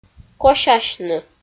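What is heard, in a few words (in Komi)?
"косясьны", Komi-Zyrian, female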